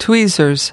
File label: "tweezers", us